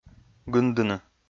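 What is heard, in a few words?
"гындыны", Komi, male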